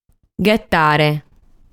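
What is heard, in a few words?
"Ghetare", Sardinian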